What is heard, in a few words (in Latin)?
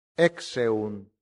"exeunt", latin; santillana